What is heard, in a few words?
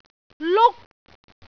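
"luk1", Cantonese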